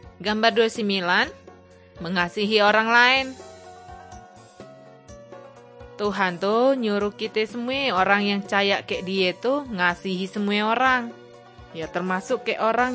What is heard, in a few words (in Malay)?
kabar baik good news malay bangka